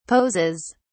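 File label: "poses", British English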